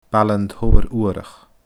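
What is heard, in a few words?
"Baile an Todhair Uarach", Scottish Gaelic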